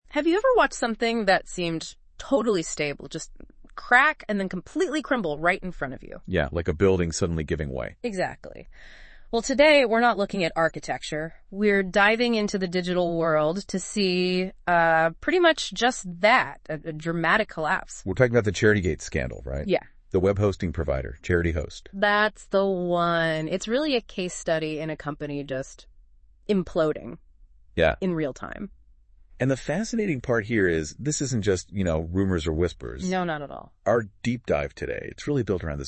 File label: voiceover